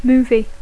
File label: "movie", English